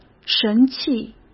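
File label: "神气", Mandarin Chinese; chinese flashcards; hsk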